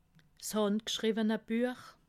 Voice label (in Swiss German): "handgschriwene", alsace